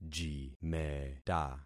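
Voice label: "jimeta", concatenated syllables; Affixing experiment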